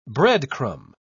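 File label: "breadcrumb", British English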